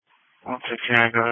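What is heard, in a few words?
Police Patrol Dispatch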